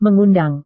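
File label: "mengundang", Indonesian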